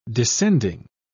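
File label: "descending", English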